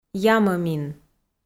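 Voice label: "ямӧмин", Komi, female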